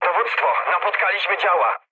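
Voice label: Polish damaged anti-aircraft mood medium; speech; War Thunder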